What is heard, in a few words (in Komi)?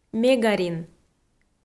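"мегарин", Komi, female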